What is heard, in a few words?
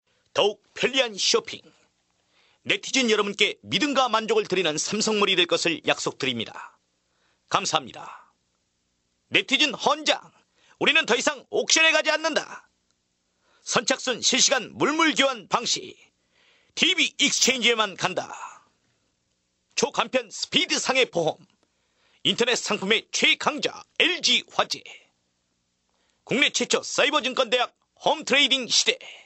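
Korean male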